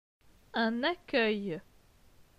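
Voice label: "Un accueil", French; phonetique; les voyelles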